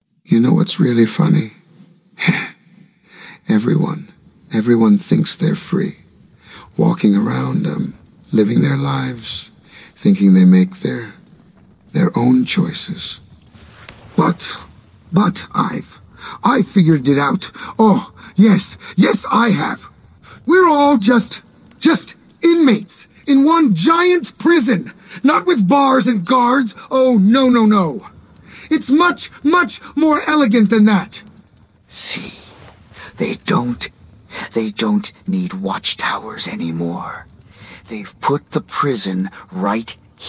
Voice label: Murmur Excitement Anger Whispers; MiniMax Speech

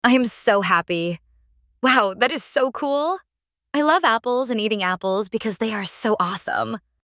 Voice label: jessica happy

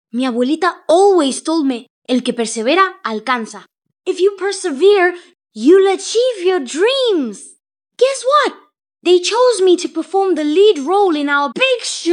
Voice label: Spanish English; MiniMax Speech

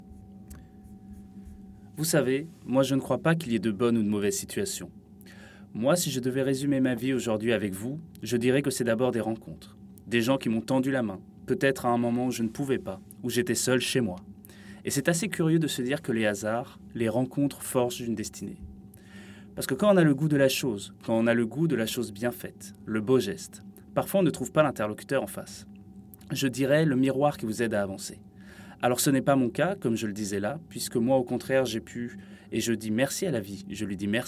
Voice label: fr monologue

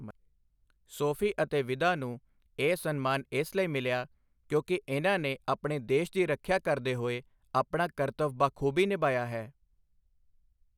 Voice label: Punjabi; indic conformer

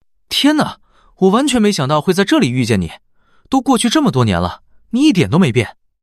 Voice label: Surprised; MiniMax Speech

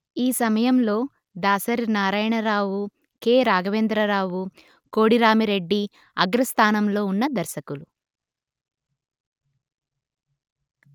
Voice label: Telugu; Meta mms ASR

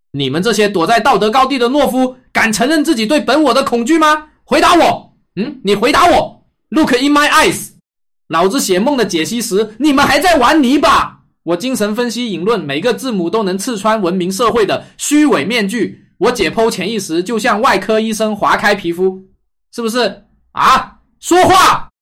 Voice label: Mandarin; Breaking Down Mandarin; OneShot; MiniMax Speech

